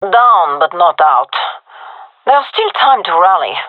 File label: round losing, fem; vo